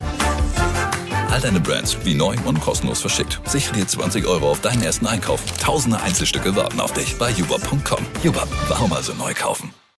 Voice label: tv spot; ubup

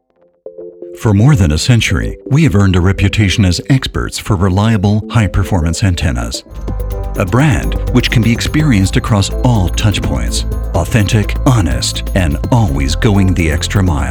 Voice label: automotive engl

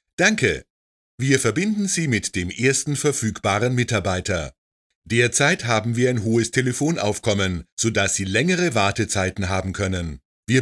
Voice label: telephone

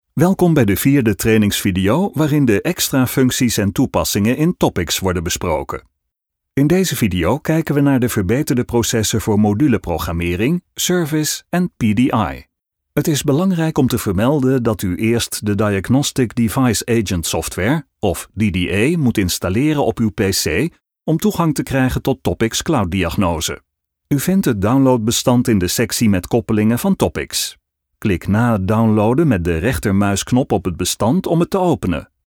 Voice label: nl vo e learning instructions

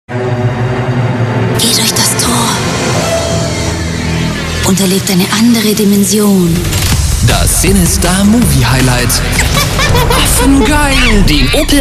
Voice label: cut spots

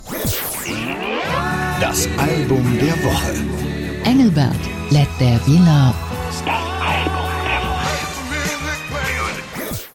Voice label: promo

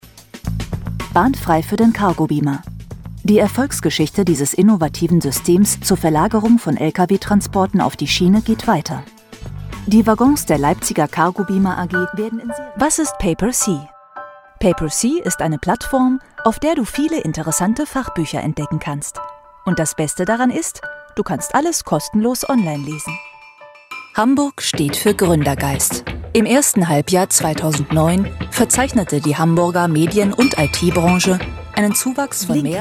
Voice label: corporate mix; Sprecher in Berlin, Bremen, Hamburg, Hannover, Kiel, Lübeck, Lüneburg

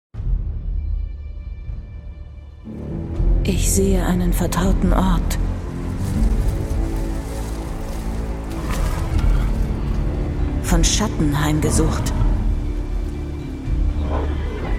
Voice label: trailer; elderscrolls

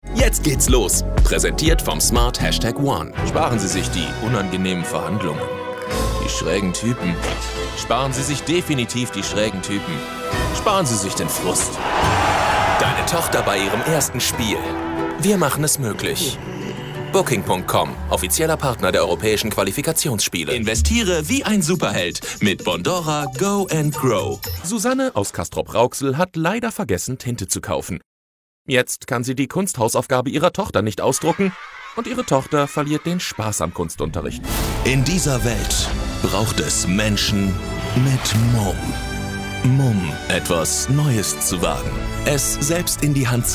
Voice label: de commercial

